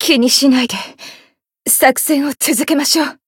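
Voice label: Voice win barely, Japanese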